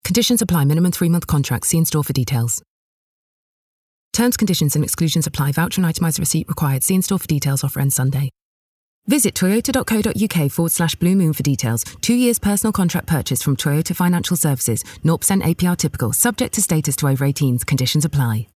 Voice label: Legals Reel